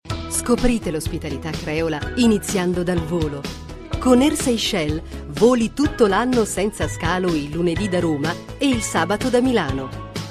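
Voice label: IT F commercial, Italian, female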